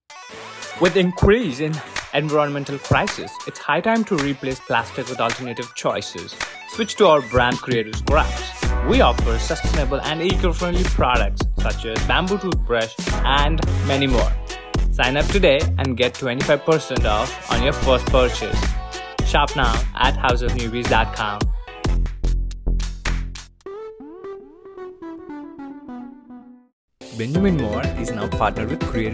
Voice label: Commercial, voice overs